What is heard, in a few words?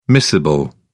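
"miscible", British English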